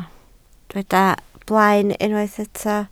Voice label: "blaen", welsh

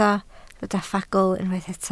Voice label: "ffagl", Welsh

